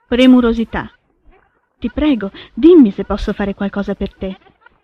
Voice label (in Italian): premurosita